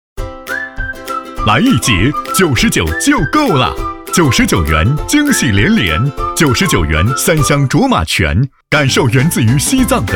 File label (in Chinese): 男 促销广告; 来易捷